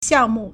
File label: "xiang4mu4", Chinese